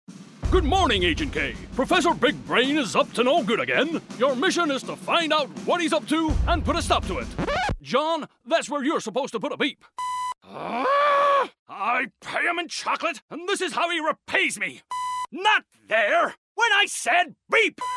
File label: animation